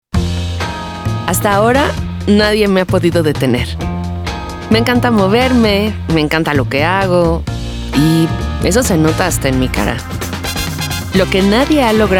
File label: Storyteller Natural Autentica